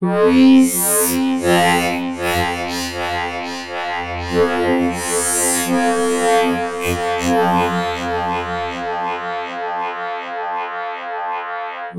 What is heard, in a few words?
VOICE FX, Drum Bass, 160; eJay